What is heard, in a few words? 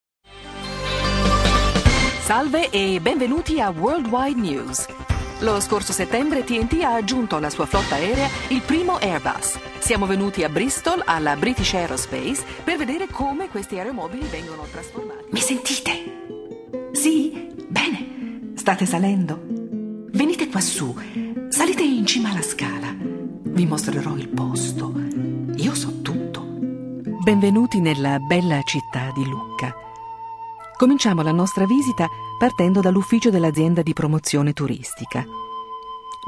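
italian female voice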